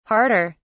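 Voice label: "harder", British English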